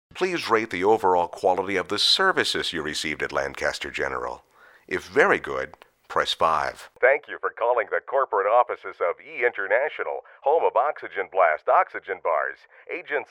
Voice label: Phone

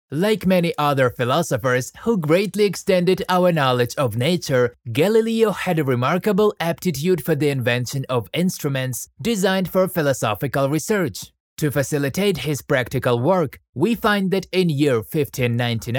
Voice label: English voice over; Galileo

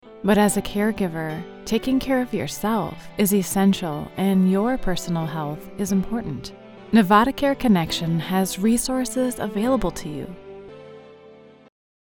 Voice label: radio healthcare

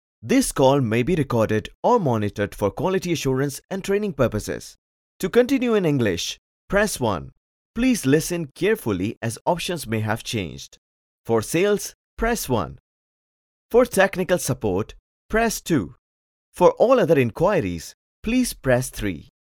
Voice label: On Hold or IVR

